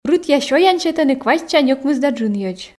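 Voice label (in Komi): рытъя сёян сетӧны квайтсянь ӧкмыс да джынйӧдз, Komi, female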